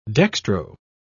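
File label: "dextro", British English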